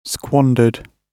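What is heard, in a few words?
"squandered", British English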